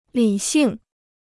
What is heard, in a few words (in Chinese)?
"理性", Chinese